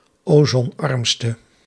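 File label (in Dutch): "ozonarmste", Dutch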